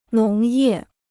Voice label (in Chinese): "农业", Chinese